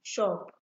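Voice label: "shop", Nigerian Pidgin, female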